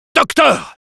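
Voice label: Spy medic, French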